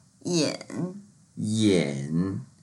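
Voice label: "yin3", Mandarin Chinese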